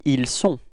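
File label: "etre ils", French; frans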